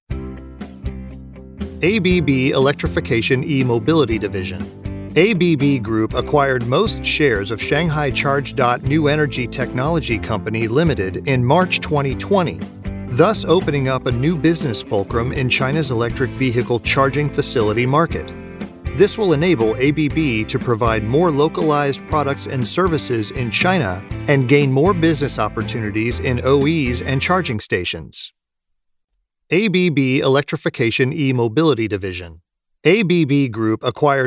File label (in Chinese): 外籍男英 外语 美式英语 轻松讲述 轻松